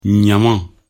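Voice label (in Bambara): "ny naman", Bambara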